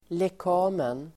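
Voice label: "lekamen", Swedish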